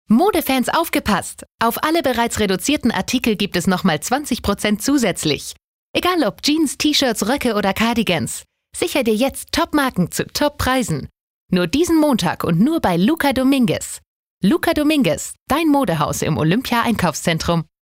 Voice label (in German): Freundlich